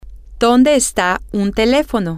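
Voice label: Spanish, where is a telephone, female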